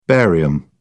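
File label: "barium", British English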